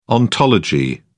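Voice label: "ontology", British English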